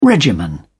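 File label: "regimen", British English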